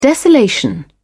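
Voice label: "desolation", British English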